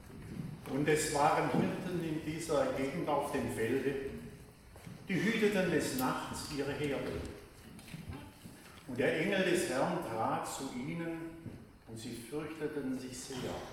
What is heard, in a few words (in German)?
Chor im Advent Ansprache